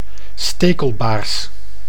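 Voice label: "stekelbaars", Dutch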